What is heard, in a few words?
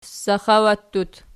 "saxawattut", Archi